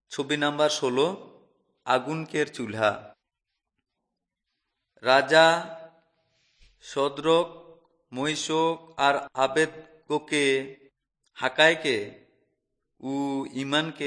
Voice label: urao, drama; kurukh fmp nt drama; on trial for god

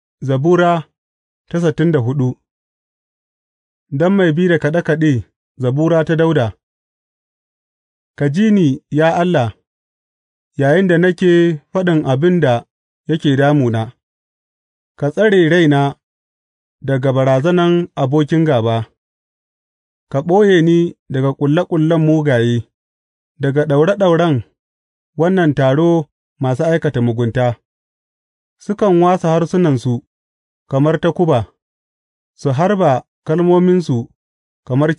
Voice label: hausa bible drama, psalms; hausa bsn nt drama

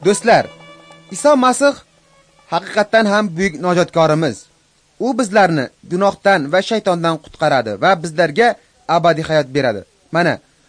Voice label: uzbek northern

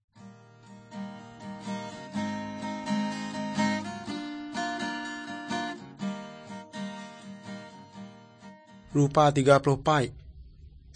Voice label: good news, urak lawoi

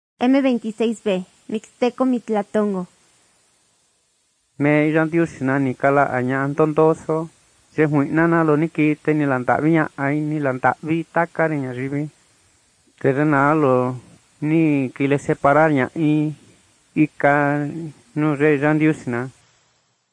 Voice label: mixtec diagnostic spanish mexico

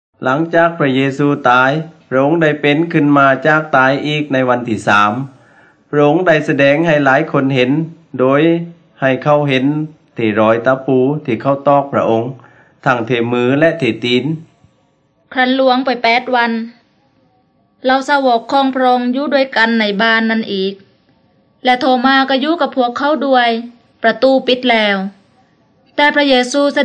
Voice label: words of life creation to christ thai southern

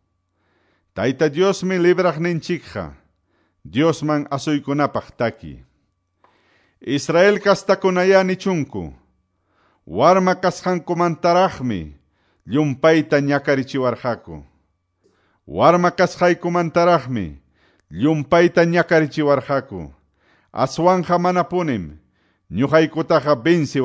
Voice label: salmos, quechua ayacucho

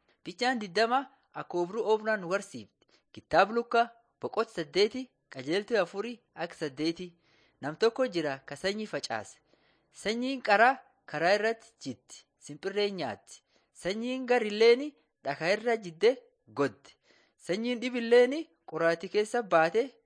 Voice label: borana sakuye

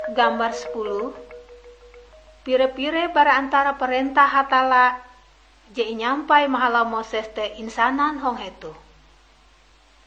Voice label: kabar bahalap good news ngaju, drama

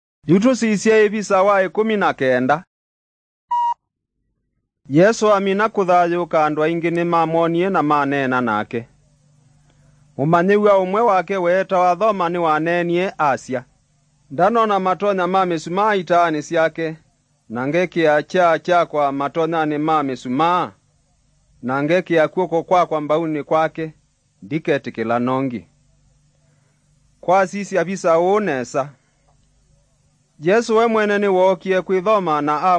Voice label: kikamba drama; good news; bible

